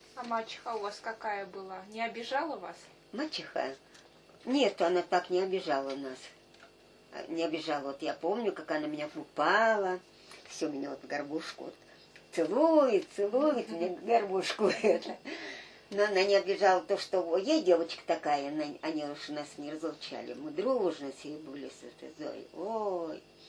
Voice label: middle ob dialect; горбушка